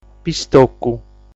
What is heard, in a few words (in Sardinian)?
"Pistocu", sardu